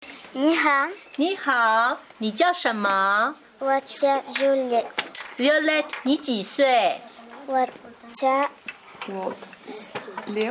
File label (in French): conversation